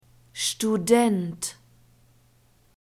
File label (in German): "student", German; masculine